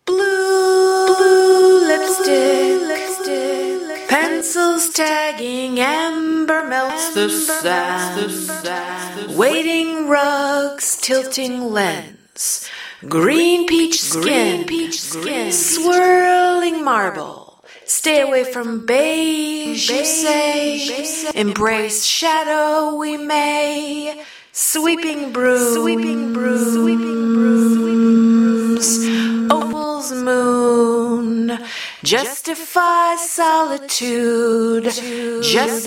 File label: casual yet professional double